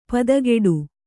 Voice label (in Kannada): "ಪದಗುಡು", Kannada